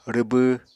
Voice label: "rwbw", Apatani

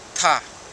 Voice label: "ta", futuna aniwa; Canoe

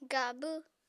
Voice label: "gabw", Apatani

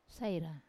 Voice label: "saira" quickly, Wamesa

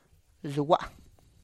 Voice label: "zua", stand animal

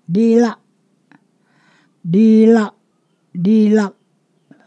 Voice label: "tongue", Ponosakan

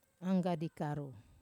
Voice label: "anggadi karu", Wamesa

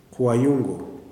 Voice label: "feather", kuman

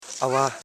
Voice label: "a wa", house parts, Koro

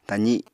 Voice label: "tazi", apatani

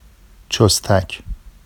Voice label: “ʧostac”, Vafsi; چُستَک

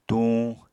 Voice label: "tuñ", apatani

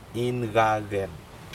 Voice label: kava, Aneityum

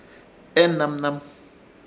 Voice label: "Enanman", Ishir Chamacoco; relapagos

